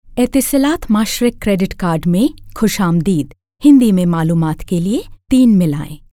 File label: female voice Urdu Message